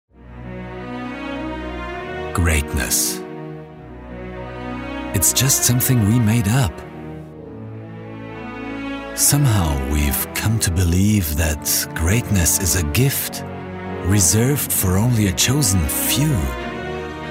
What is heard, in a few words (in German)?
Englisch motivierend; Nike